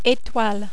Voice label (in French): "etoile", French